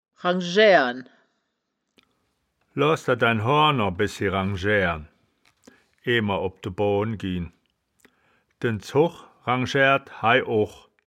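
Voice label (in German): "ranjaeaeren", wittlicher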